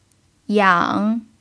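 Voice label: "yǎng", Mandarin Chinese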